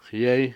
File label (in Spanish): humo, Cucapá